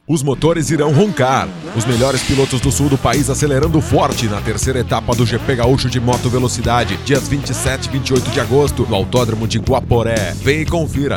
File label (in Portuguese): comercial